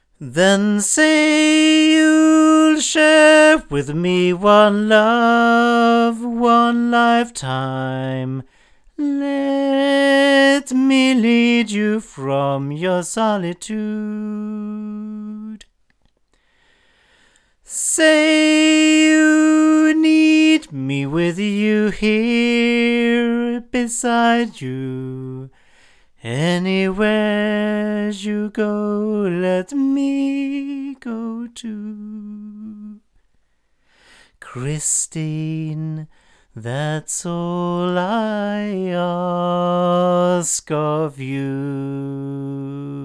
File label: ADK SC-2 male, microphones